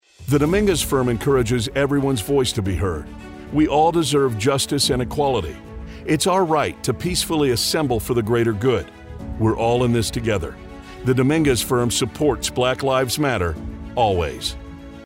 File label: radio spot